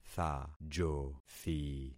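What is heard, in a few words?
"thajothi", concatenated syllables; Affixing experiment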